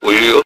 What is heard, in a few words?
logo Japanese voice; Pikmin Nintendo